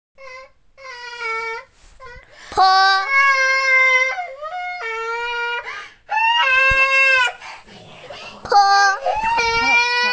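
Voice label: "po1", Mandarin Chinese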